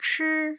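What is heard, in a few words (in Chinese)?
"chi1", Mandarin; tough sounds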